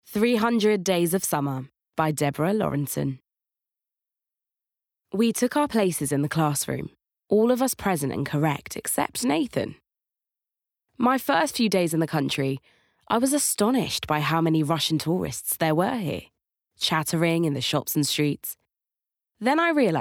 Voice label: Audiobook

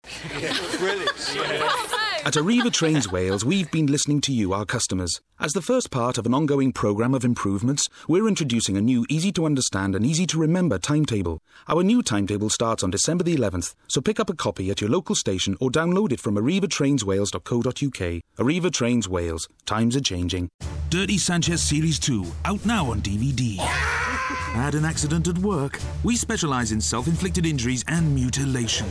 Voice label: welsh accent

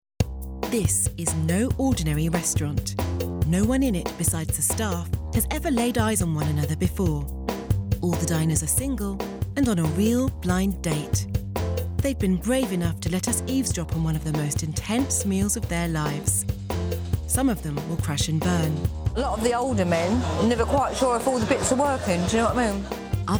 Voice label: narration; first dates

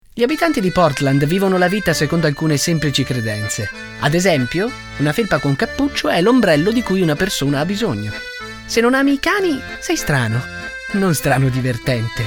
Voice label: narration reel italian

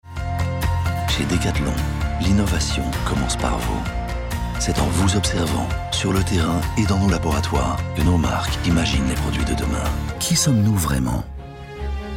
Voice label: corporate voice over

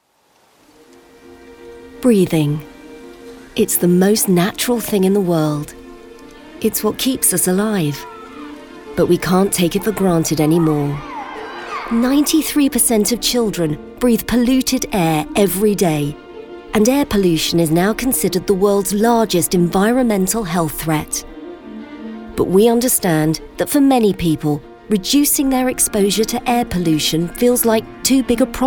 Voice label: Narration

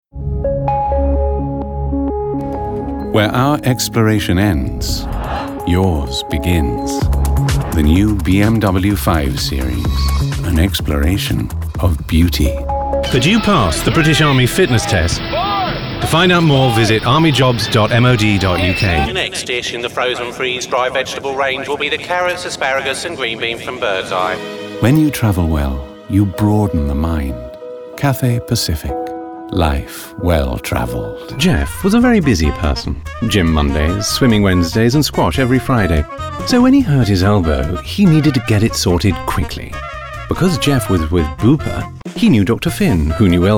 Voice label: commercial

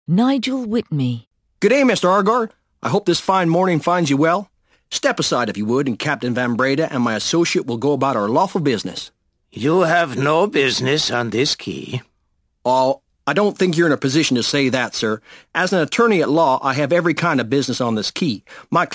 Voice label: audiobook character

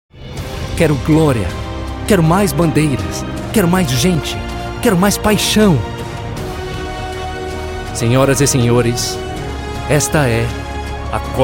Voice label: Brazilian Portuguese voice

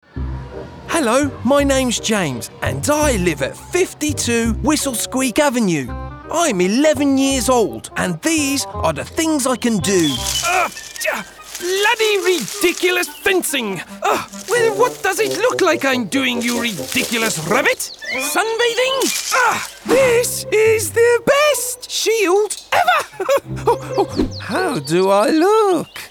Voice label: animation reel

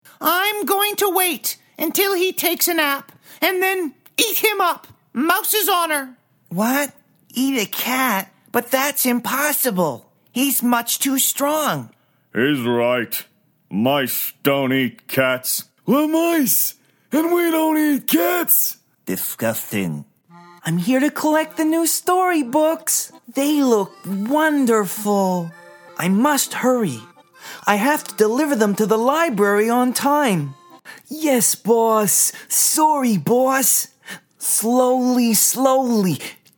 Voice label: character

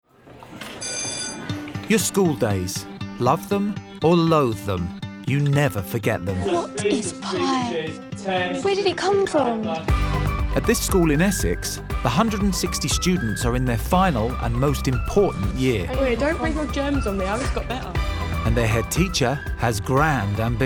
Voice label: narrative

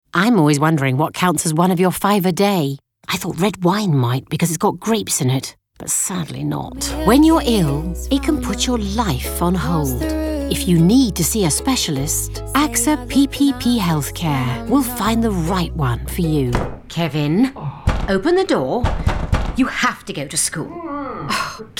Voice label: commercial

